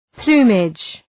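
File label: "plumage", English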